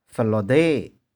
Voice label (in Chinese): "feh4loh4de1", 玉環